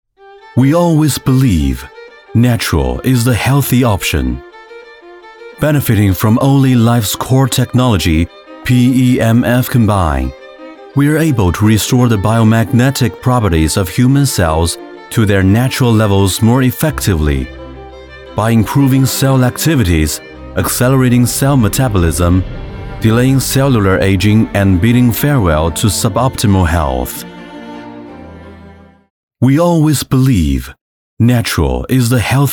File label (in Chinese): 英文科技; 【英文科技】OlyLife; 外语配音